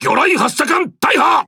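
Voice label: Japanese Speech Defective Modules Torpedo Destroyed; World Of Warships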